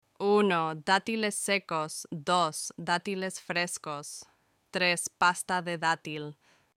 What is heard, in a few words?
dates in spanish